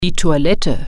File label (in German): "restroom", German